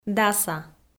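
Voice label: "даса", Komi, female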